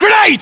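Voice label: British inform incoming grenade, battlechatter, english; uk; localized english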